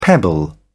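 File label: "pebble", British English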